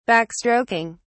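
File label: "backstroking", British English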